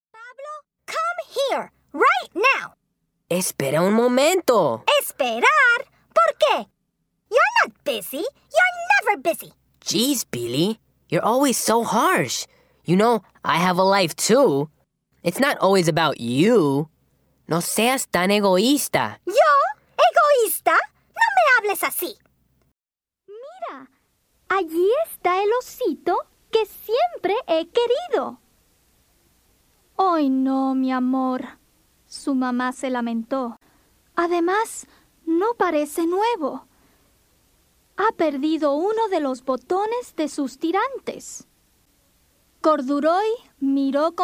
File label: Animation Voices